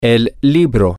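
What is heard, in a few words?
the book, Spanish, male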